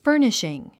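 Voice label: "furnishing", English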